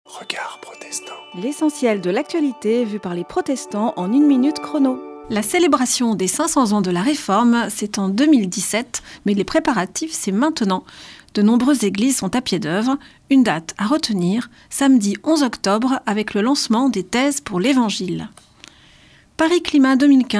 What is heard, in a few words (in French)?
Spot radio; Regards protestants